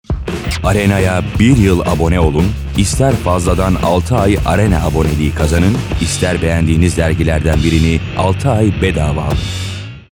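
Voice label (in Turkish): otoriter, seslendirme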